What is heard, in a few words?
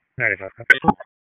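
Raleigh Police Special Operations Tactical, p25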